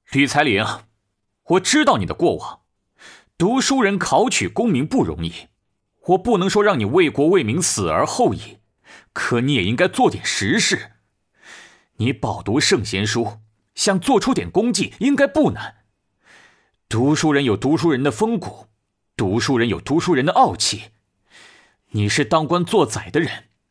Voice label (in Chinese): 青年愤慨